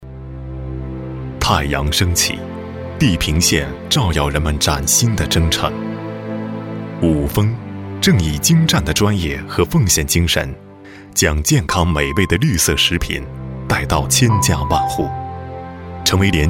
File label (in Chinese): 男国 宣传片 企业 讲述; 五丰公司